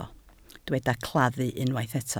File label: "claddu", Welsh